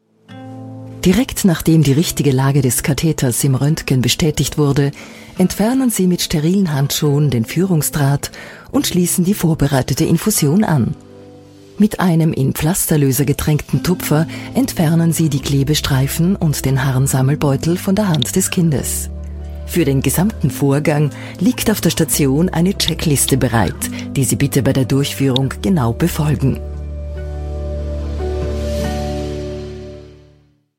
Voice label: corporate, Bilingual Austrian German Voice; Austrian German Female Voiceovers

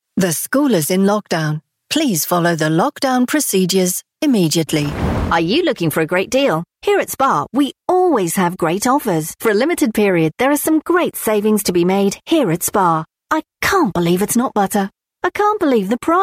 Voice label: phone building in store message

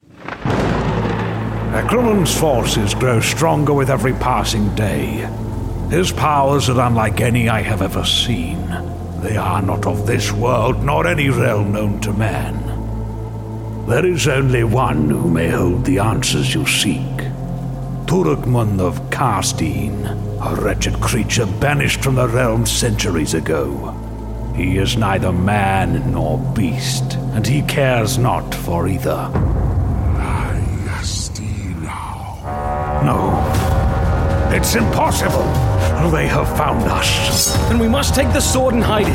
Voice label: elderly fantasy wizard

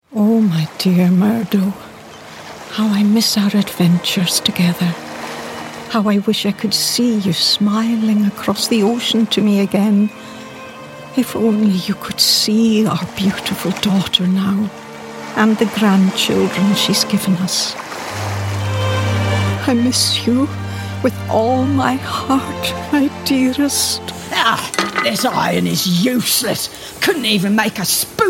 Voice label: mature scottish character

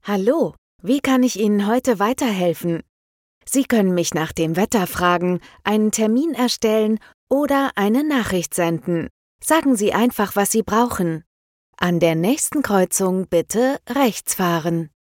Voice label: german voice system announcements

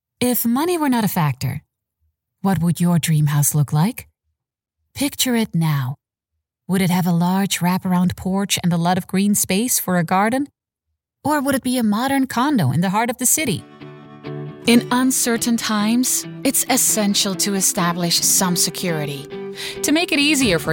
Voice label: Dutch voice English montage

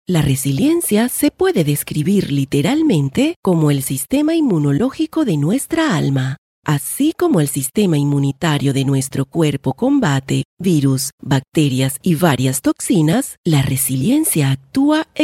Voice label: Latin Spanish voice audiobook

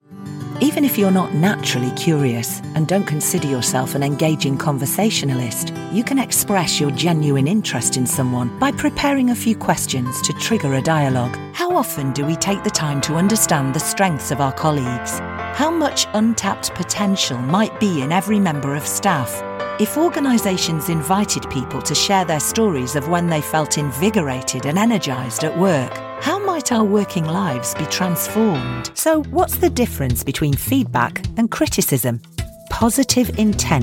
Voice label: e-learning

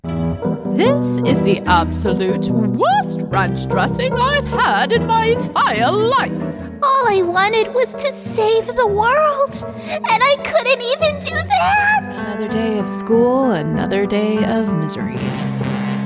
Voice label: american voice actor character

